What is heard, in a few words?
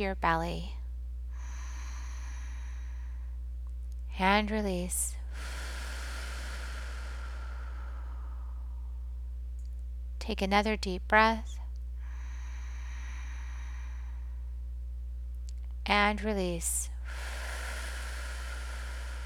Receive Flames from People You Admire Meditation